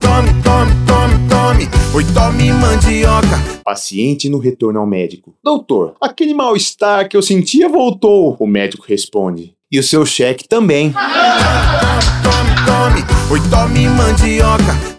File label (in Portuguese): spot piada; rei da mandioca